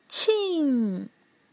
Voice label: "qìng", pinyin; PinyinChart